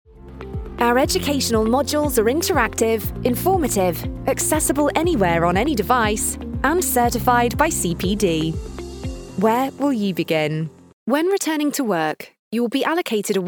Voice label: corporate